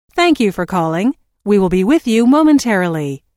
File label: Telephone prompt: Thank you for calling we will be with you momentarily, Recorded Voice